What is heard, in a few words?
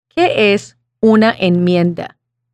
Spanish